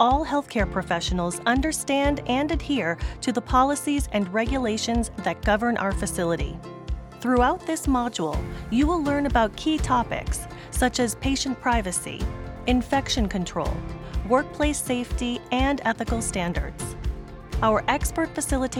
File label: Hospital Compliance Elearning